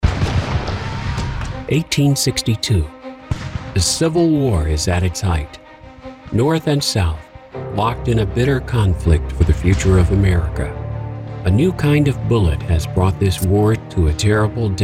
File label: Narration